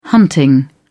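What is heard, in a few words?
"hunting", English, British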